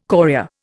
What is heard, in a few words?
"chorea", Indian